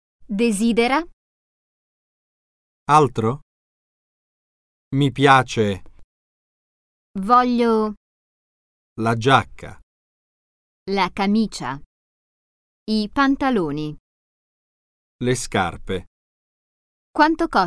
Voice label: Italian